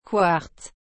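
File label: "quartz", French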